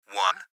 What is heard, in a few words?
Gps Voice, Male